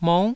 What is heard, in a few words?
"mou9", taiwanese